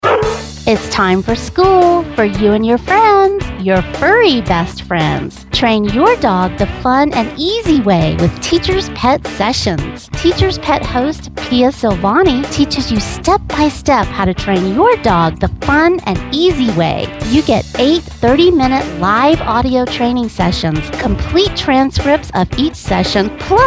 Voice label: Commercial; TPSessions; PetExpo